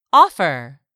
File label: "offer", English; 3000 words